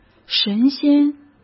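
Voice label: "神仙", Mandarin Chinese; hsk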